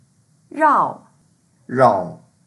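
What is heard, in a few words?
"rao4", Mandarin Chinese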